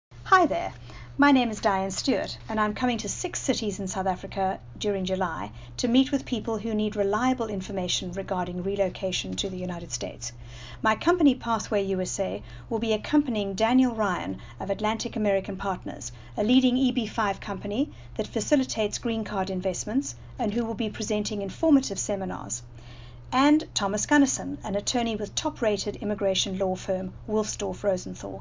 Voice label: audio spot english